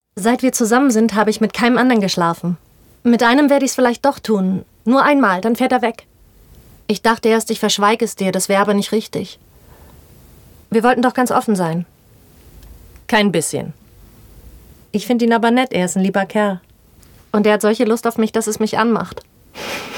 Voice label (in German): Synchron junge Stimme komprimiert; LOFT VOICES